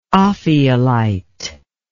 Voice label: "ophiolite", English